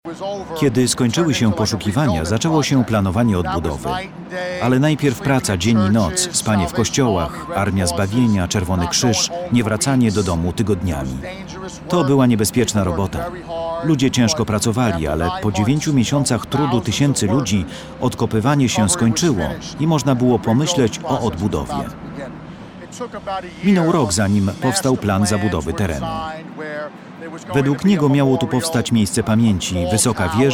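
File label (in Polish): VOICEOVER, male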